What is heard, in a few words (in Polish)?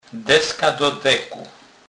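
"deska do deku", Kurpie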